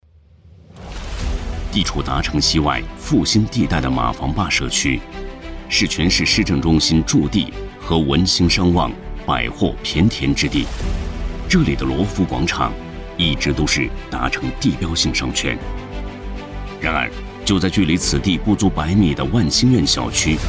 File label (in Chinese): 男97 宣传片